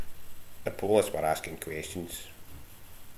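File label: "polis", slang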